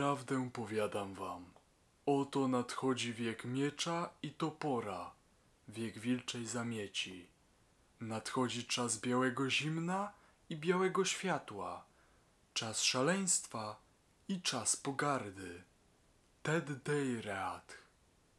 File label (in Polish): mozos mkit700pro v2 gabeczkowy, mikrofon